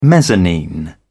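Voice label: "mezzanine", British English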